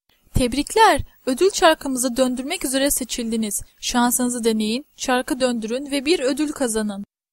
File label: Turkish Voice Over Tebrikler